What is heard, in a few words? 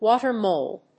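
"Water mold", English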